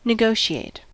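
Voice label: "negotiate", US English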